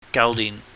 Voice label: "gauhnihn", Cantonese